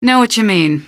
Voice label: answer, female; Garry's Mod; hl2